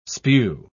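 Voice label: "spue", English, British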